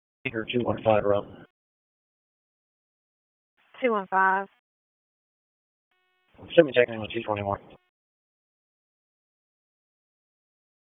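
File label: Raleigh Police Northeast District Dispatch; wake p25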